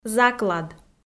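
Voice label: "заклад", Komi, female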